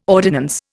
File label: "ordinance", Indian